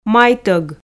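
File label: "майтог", Komi, female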